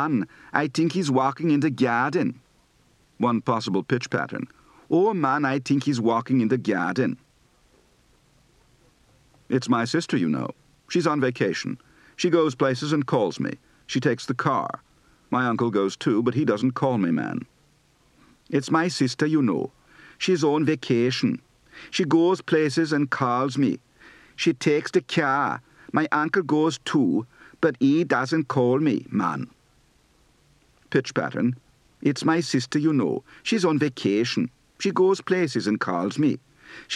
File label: Caribbean, Accent